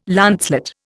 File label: "lancelet", Indian